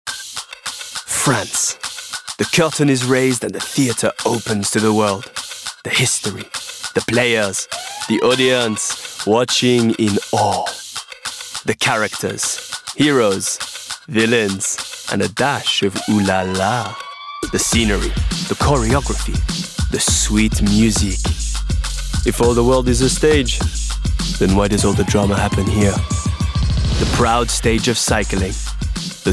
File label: English French Accent